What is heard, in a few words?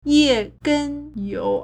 "ye4gen1you3", Chinese